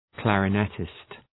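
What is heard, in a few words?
"clarinettist", English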